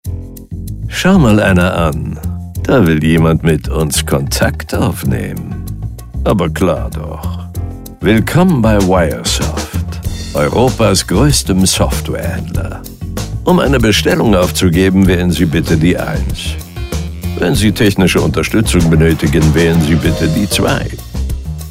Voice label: IVR Ansage stereo; Synchronsprecher; Telefonansage; Samuel L Jackson Synchronsprecher spricht Telefonansagen für Wiresoft

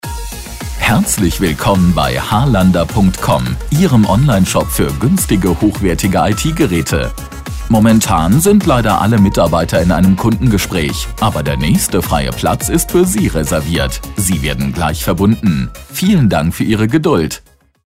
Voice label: Ansage

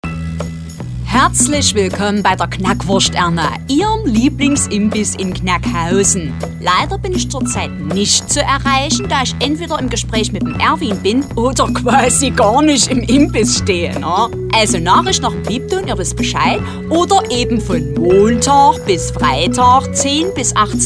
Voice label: Sächsisch

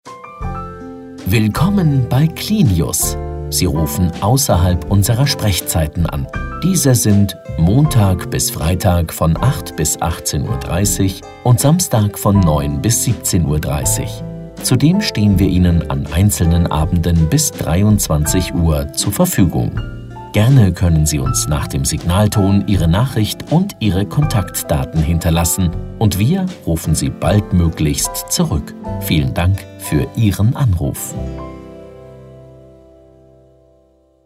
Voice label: Anrufbeantworter; Clinius; Telefonansage Zahnarzt

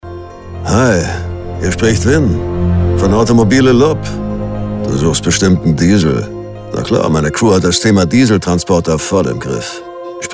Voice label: Automobile AB Ansage, Telefonansage; Deutsche Synchronstimme; Automobile LOPP; deutsche Synchronstimme von Vin Diesel; 1a-telefonansagen

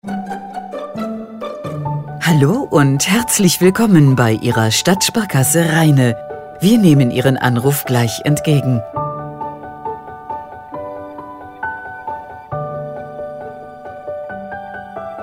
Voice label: Ansage

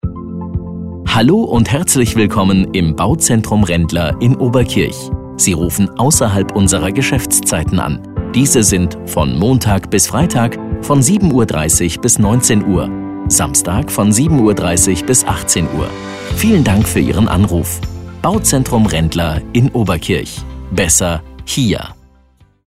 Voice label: AB Ansage; Rendler

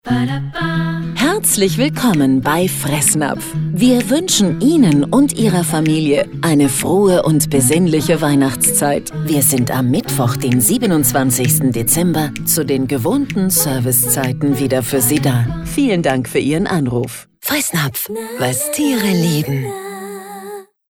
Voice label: Weihnachts Ansage; Fressnapf

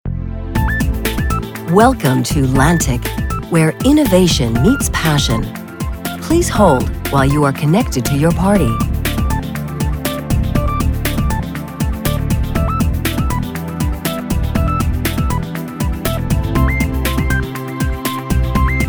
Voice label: telefonansagen sprecher us

